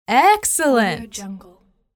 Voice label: female voice excellent